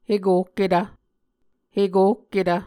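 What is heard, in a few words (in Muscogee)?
"hekohketv", Muscogee word; A Dictionary of Creek/Muskogee; SIL International; Onvkv Ohhvyayices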